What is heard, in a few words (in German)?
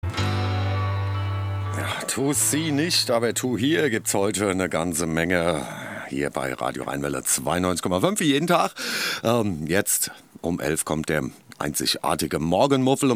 Ansage